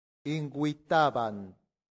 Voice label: "invitabant", Latin; santillana